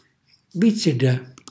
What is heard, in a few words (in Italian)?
"vizzilla", Calabrese; foruncolo; cucinacalabrese; glossario